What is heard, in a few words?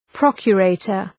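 "procurator", English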